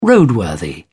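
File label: "roadworthy", British English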